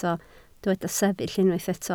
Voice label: "sefyll", Welsh

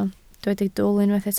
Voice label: "dwl", Welsh

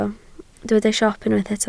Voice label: "siop", Welsh

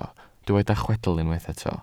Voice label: "chwedl", Welsh

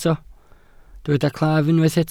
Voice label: "claf", welsh

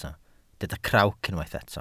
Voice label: "crawc", Welsh

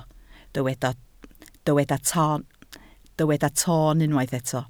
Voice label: "toon", Welsh

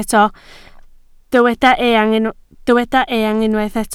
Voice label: "eang", Welsh

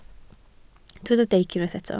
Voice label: "deic", Welsh

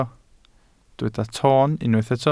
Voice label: "toon", Welsh

